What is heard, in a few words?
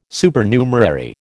"Supernumerary", American